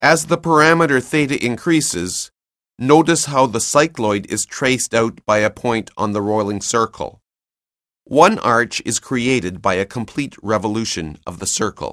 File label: narration; CALCULUS 7E; cengage